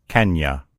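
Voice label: "kenya", English; gcompris; geography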